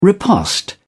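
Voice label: "riposte", British English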